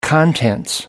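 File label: "contents", British English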